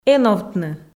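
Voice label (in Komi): "эновтны", Komi, female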